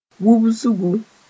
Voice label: "wubsgu", moore; dust

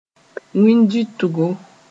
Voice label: "wĩn-dɩʋtgo", moore

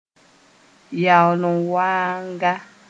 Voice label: "yaool-n-waanga", moore

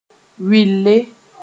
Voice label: "wɩlle", moore

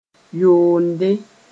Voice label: "yoonde", moore

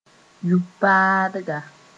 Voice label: "yub-paadga", moore

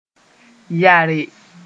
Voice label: "yare", moore

